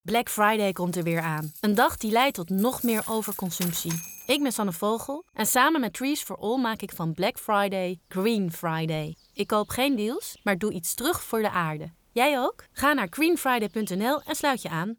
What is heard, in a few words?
Radiospot; Green Friday